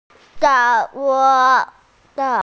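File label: "bo1 luo2", Mandarin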